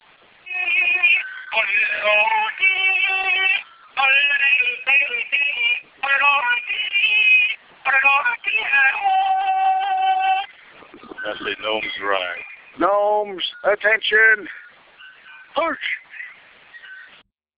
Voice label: Voice Message